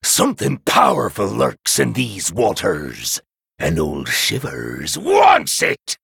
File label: Male Human Idle